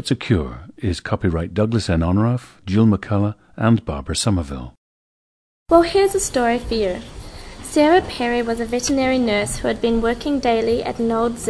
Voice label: New Zealand